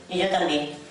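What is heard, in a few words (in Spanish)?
"y yo tambien", Spanish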